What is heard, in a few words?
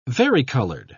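"varicolored", British English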